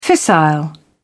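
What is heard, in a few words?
"fissile", British English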